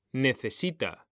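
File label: "necesita", Spanish; mekanta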